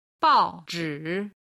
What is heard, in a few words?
"bao4zhi3", Chinese